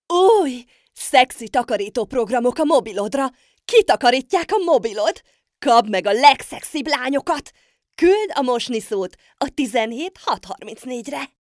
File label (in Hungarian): hun werbung